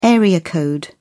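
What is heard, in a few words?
"area code", English, British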